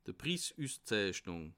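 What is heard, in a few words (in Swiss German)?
"prissusszeichnung", Bas Rhin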